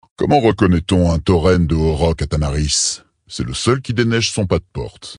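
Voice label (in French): Male Blague, voix françaises; Tauren Haut Roc; Tanaris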